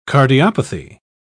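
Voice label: "cardiopathy", British English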